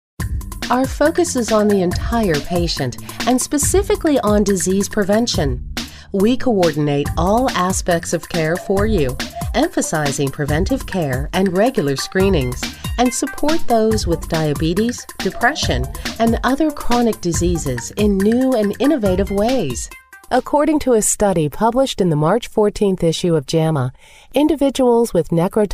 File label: Medical Narration